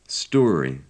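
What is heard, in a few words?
"story", British English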